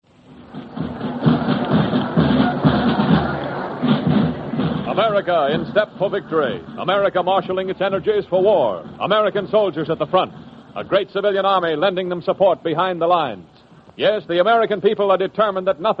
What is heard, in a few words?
commercials patriotic announcement; homefront sacrifices